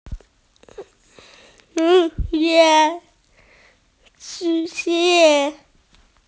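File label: "yu xie" (rain boots), Chinese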